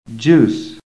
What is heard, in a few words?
"JUICE", american; PHONETIC REFERENCE